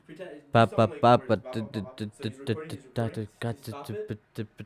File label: recordin Mic Input; flstudio; Vocals